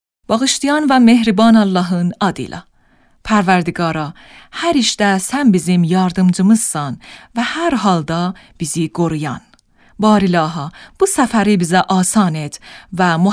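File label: Turkish Woman; zaban kharjeh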